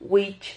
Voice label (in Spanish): "wich", Silabas